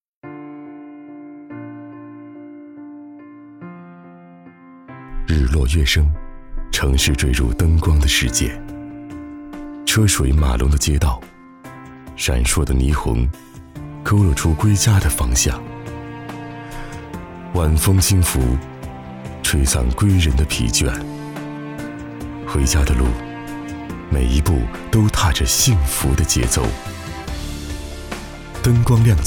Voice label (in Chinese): 走心; 日落月升